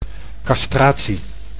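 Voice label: "castratie", Dutch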